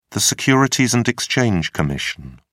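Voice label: "securities and exchange commission", British English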